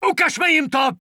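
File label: Czech positive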